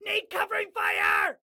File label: Need Cover, Excited Guy